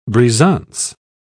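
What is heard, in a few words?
"brisance", British English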